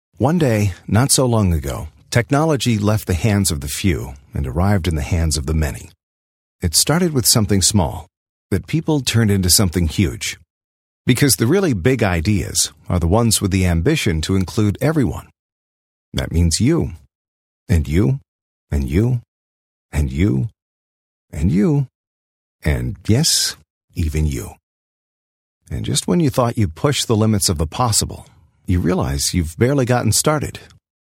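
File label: American narration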